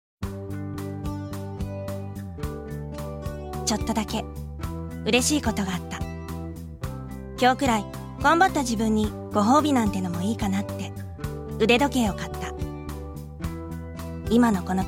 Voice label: female